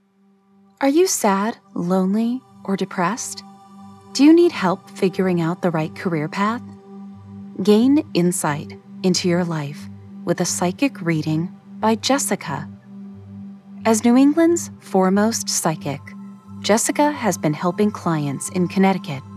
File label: voiceover